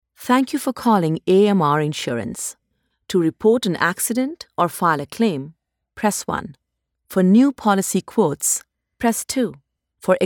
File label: IVR Insurance